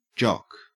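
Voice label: "jock", Australian English; pronunciations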